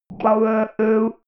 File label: robot voice power low